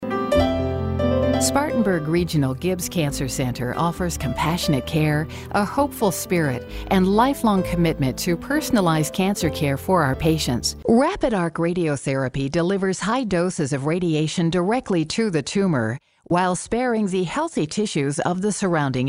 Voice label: CORPORATE INDUSTRIAL